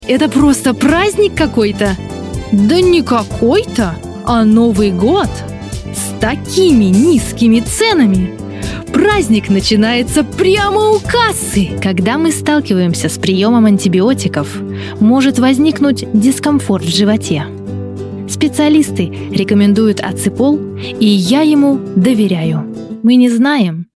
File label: russian voice over female narrator